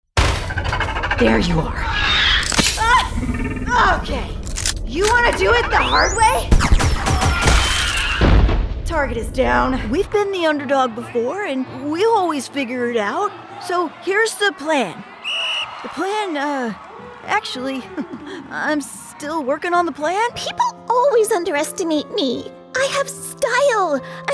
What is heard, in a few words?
Character